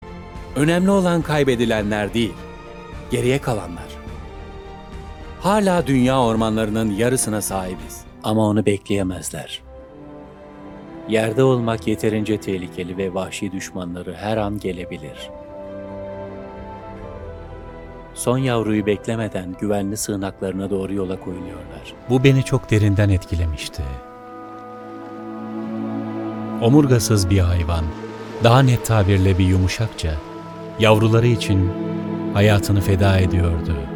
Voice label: turkish narration

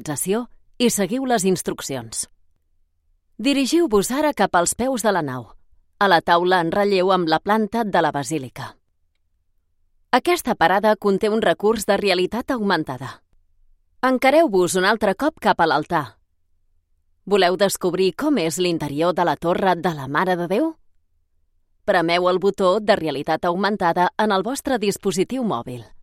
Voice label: catalan audio guides; professional Spanish and Catalan voice actor

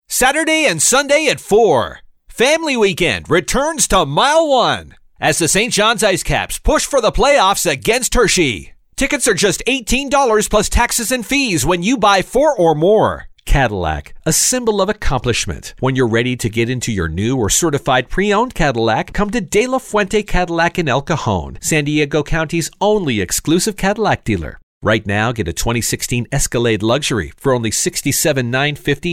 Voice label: english canadian promos

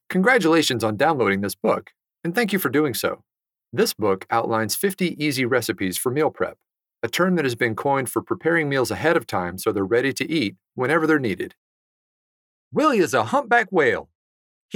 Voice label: english american audiobooks

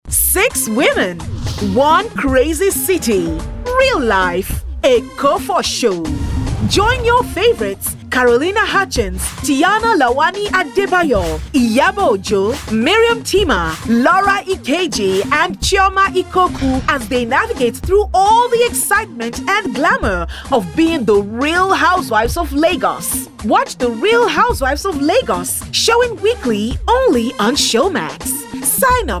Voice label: english african movie trailers

